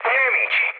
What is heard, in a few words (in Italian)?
enemy detected, mood med, speech it; War Thunder